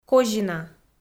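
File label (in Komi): "козина", Komi, female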